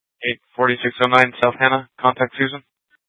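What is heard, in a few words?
Tempe police department, scanner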